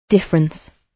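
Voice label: "difference", British English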